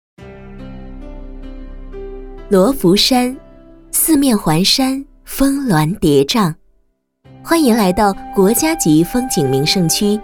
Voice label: 广播 导游播音 景点导游介绍 甜度; 罗浮百岁山导游播音; 语音导游

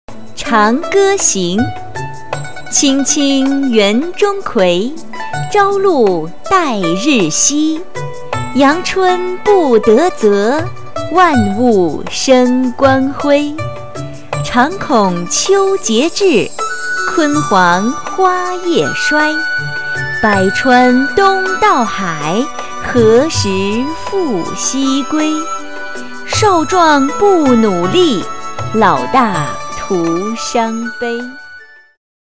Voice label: 国学成人女; 纪录片

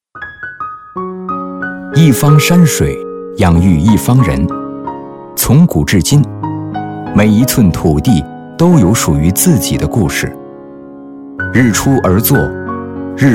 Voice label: 模仿类; 广告 > 模仿; 红河人

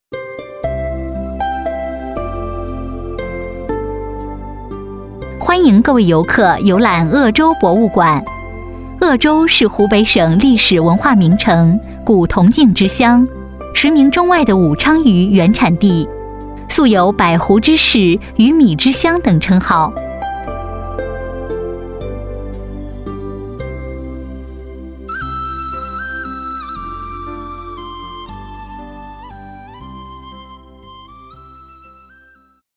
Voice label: 广播类大方端庄 公共广播语音; 博物馆; 语音导游

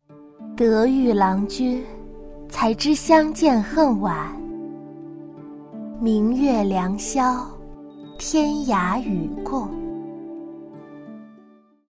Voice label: 古风少御-温柔端庄; 动画动漫; 角色扮演

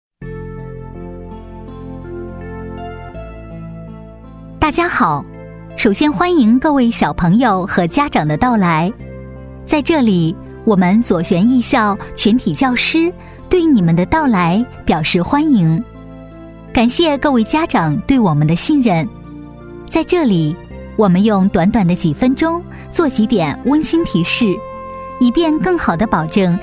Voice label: 广播类甜美阳光 公共广播语音欢迎小朋友; 广播提示

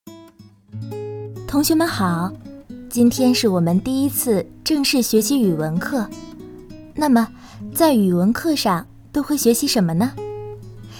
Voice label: 课件 儿童网络课程 温柔甜美

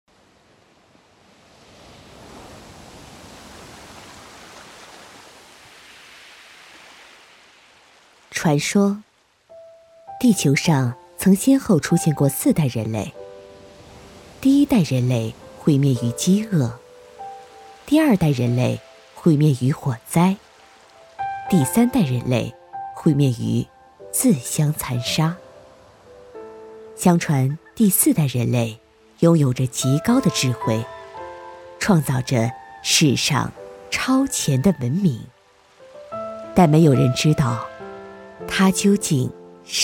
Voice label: 专题类; 四代人类; 科教类

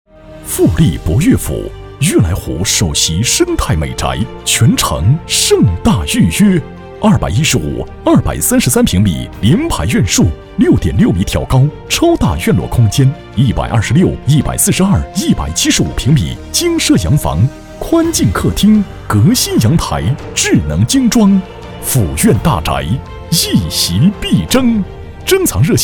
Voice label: 大气广告; 宣传片 > 房产宣传片; 富力铂悦府

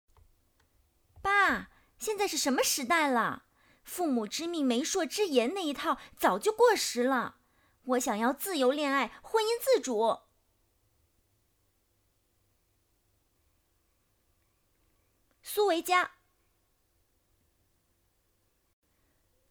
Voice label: 青年女性

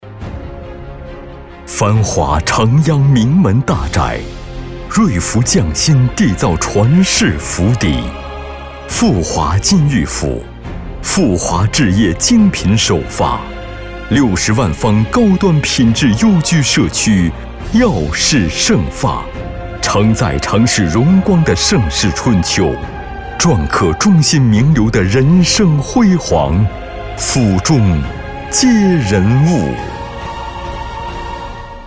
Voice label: 房地产类广告; 广告 > 建筑房产; 富华

